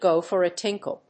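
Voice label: "go for a tinkle", English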